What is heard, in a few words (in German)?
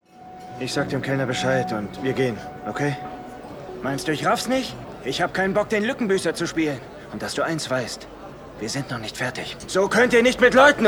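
Enttäuscht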